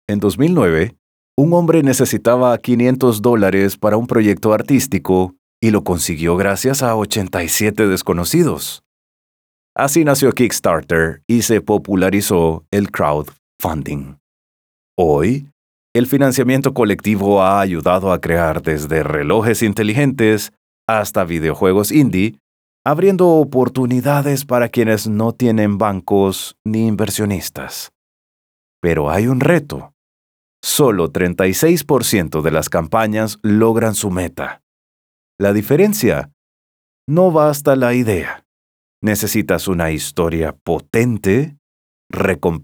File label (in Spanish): E-learning; Crowfunding